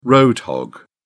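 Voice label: "road hog", British English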